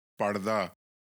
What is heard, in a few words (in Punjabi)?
"pard dha", Punjabi